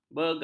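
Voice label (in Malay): "begar", Malay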